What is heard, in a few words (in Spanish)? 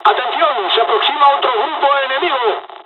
dialogs spanish; War Thunder